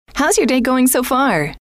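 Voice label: how is your day going so far, female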